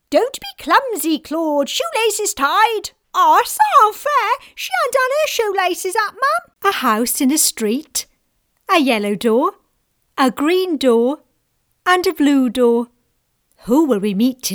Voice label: Character Animation Home Read